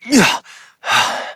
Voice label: Operator Voice, en; Operator Mission; Generic Land